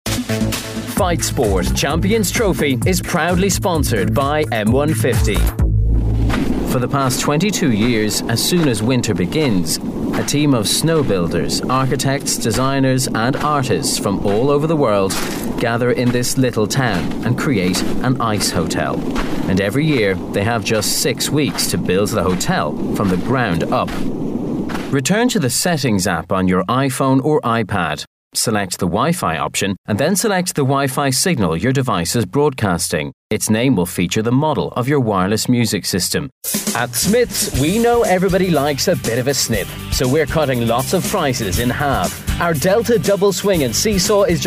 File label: Voiceover